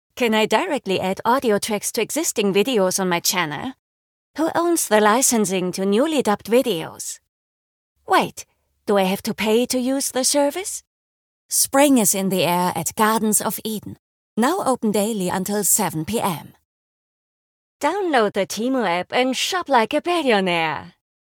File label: EN; reel